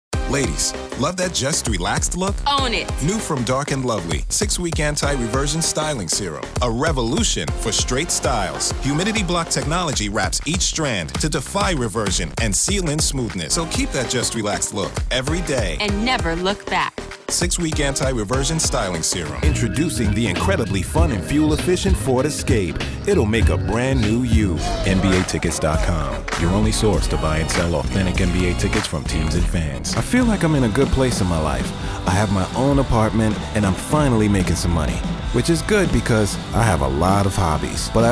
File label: Commercial